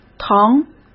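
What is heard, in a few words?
"疼", Mandarin Chinese; chinese flashcards; hsk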